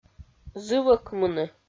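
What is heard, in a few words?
"зывӧкмыны", Komi, female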